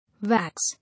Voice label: "Wax", English